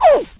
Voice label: oof, Drill Sergeant; speech